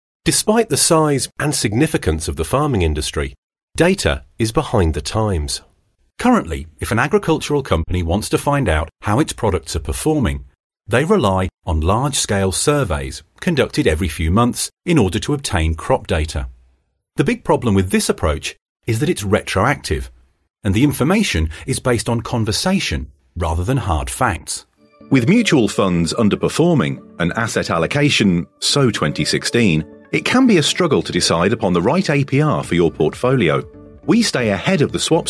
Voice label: english british corporate videos